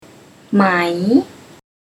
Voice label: "Măi", Thai

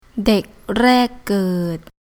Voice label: "Dèk Râek Gèrd", Thai

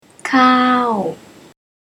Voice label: "Kâaw", Thai

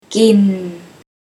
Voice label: "Gin", Thai

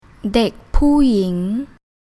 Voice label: "Dèk Pûu Yĭng", Thai

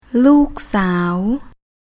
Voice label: "Lûuk Săaw", Thai

